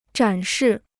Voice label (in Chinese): "展示", Chinese